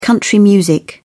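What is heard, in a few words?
"country music", British English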